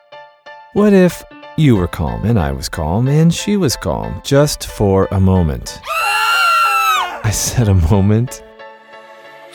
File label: money voice; what if we were calm